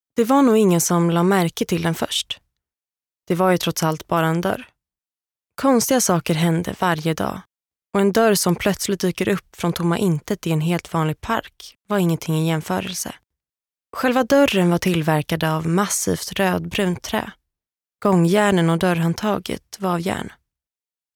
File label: SWE Audiobook f